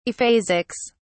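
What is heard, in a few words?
"aphasics", British English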